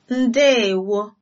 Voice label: good afternoon, igbo

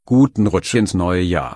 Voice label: new year, german

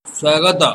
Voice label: welcome odia

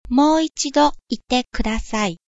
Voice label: say again, japanese

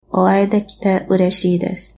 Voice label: meet, japanese

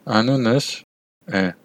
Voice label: my name is, armenian western; Անունս... է; (Anoonuhs... e)

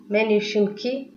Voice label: name, tigrinya

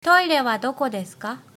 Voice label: toilet, japanese

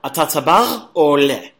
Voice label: native, hebrew

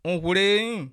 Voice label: good evening, bassa

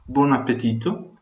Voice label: bon appetit, Venetian

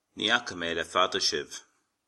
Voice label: "long time no see", Irish

